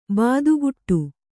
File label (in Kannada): "ಬೆದೆಗೂಡು", Kannada